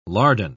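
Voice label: "lardon", British English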